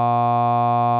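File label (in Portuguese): Sinais de voz com variação de R_k, R_k=0.6; pulso glotal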